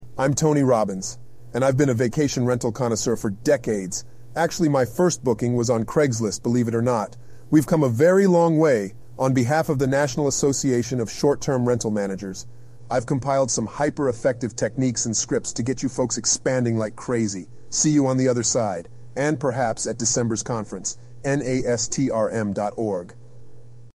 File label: synthesized audio